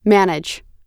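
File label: "manage", American English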